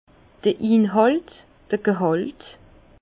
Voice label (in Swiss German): "inhalt", Bas Rhin; vin; contenance